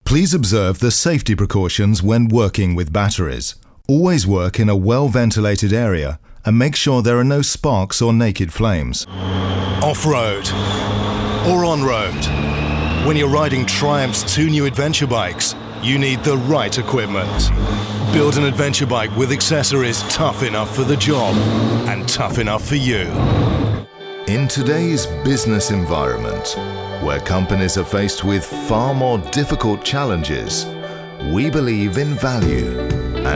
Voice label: Narration

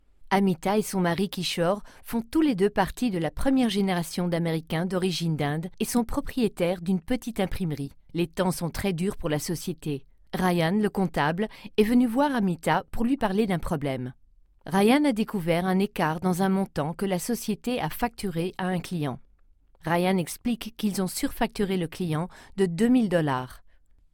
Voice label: French E Learning

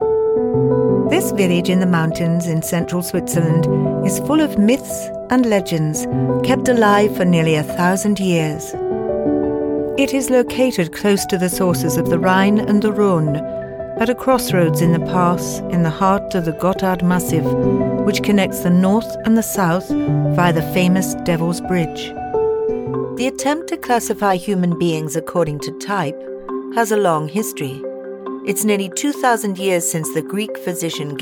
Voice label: Narration

